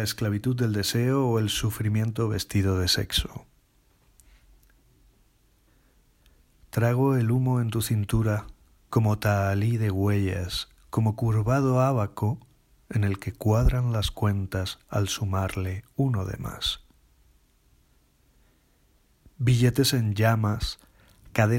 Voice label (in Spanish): poema original voz del autor; Tahalí